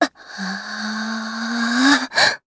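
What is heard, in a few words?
Vox Casting Japanese; Lorraine; kings raid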